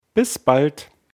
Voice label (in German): "bis bald", d